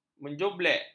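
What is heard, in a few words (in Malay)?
"menjublek", Malay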